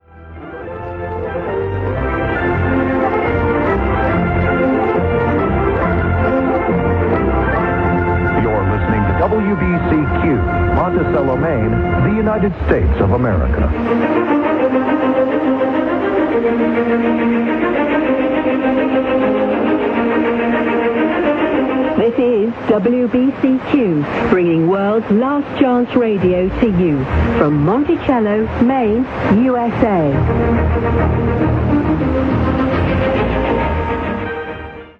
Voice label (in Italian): 9330 kHz inglese; WBCQ World's Last Chance; Degen DE 1103; filo 5m indoor; St. Ursen